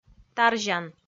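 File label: "тарзян", Komi, female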